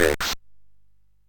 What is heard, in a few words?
MOS6581R3, Electronic Speech Systems; Kennedy Approach